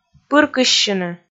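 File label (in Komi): "пыркыссьыны", Komi, female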